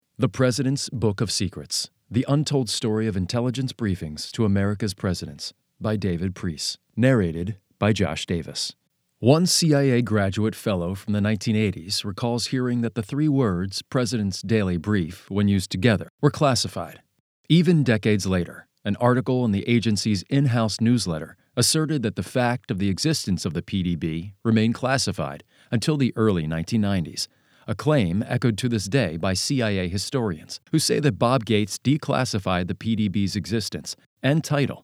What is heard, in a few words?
audiobooks men non-fiction